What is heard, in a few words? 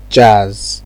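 "jazz", Nigerian Pidgin, male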